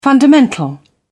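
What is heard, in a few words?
"fundamental", British English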